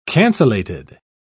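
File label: "cancellated", British English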